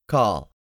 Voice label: "CALL", English; 3000 words